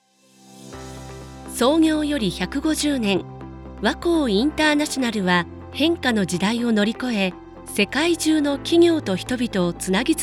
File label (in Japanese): 企業紹介 しっかり; VOPlanet